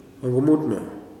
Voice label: "eye", kuman

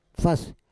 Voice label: "fas", Roon

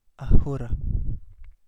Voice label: Kapingamarangi; bicolor cleaner

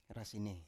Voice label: "rasine", Biak

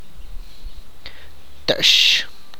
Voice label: "chest", tlacochahuaya

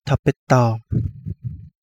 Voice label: Kapingamarangi; trumpetfish

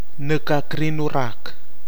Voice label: "nɨkakri-nurak", Nafe (Kwamera); n my clavicle

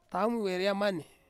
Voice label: "taumi weriamani" next year, Ansus

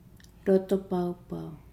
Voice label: "angry", Nukuoro; Nukuoro Talking Dictionary

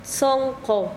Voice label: Chanka, quechua; corazon

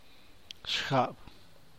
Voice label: "shjab", San Mateo Macuilxochitl Zapotec; think; pensar

